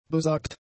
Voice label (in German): "besagt", German; adjectives